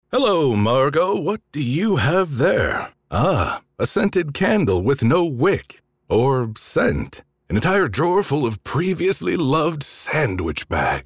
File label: DREAM SEQUENCE RADIO; Littledale